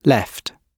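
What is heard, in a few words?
"left", British English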